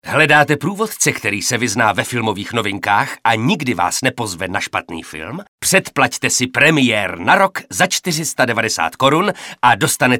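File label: Male Czech commercial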